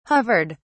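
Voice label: "hovered", British English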